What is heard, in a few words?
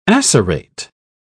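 "acerate", British English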